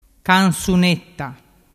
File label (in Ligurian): "cansunetta", dialettu